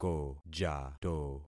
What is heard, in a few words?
"kojato", concat syllables; Affixing experiment